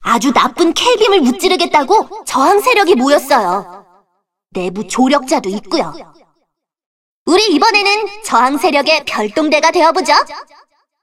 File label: cinematic voice; lineage2